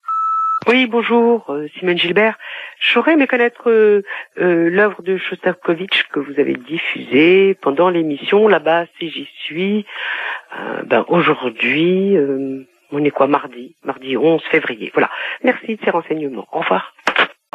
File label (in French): Leningrad repondeur